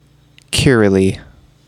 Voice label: Kiraly Pronounced Male